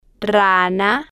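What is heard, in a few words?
"rana", Spanish, female, slow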